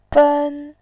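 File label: "ben", Mandarin Chinese, tone 1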